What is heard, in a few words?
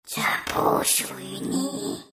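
deny, chinese; dota2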